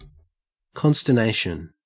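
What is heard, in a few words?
"consternation", Australian English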